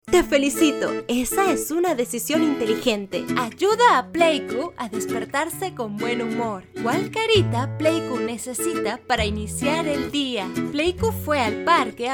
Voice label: Spanish Latin America

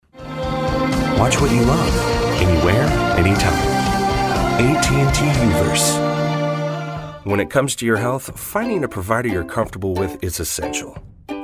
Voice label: English American

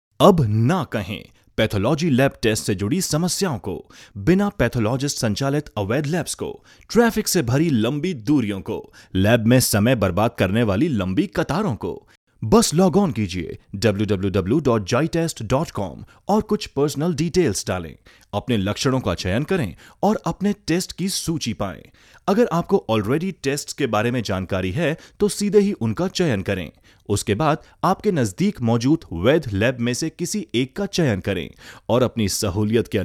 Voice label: Hindi male

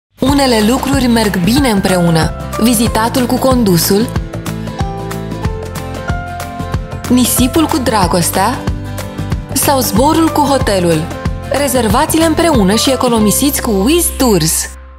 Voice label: Romanian

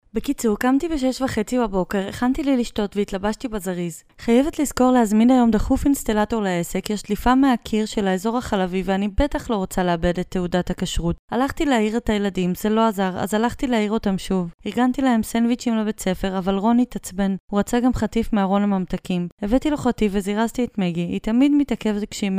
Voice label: Hebrew

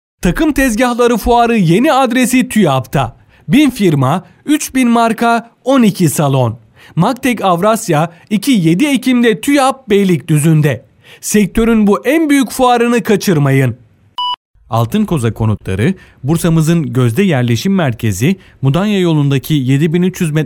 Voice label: Turkish male

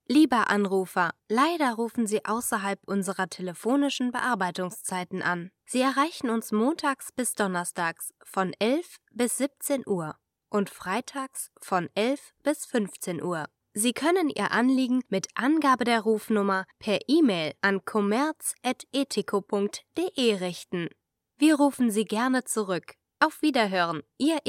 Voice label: German

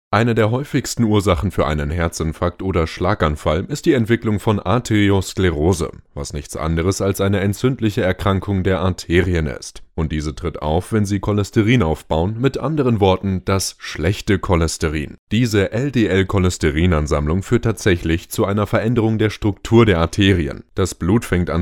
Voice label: German male

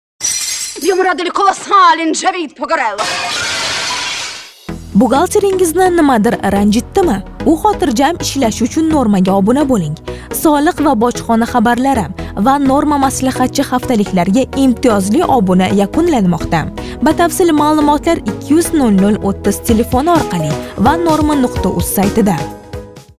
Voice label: Uzbek

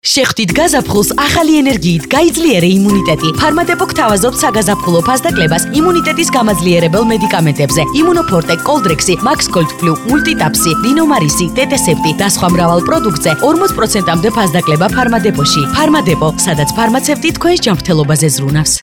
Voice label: Georgian female

